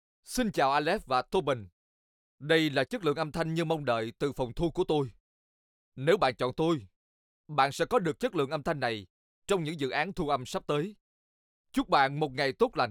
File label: studio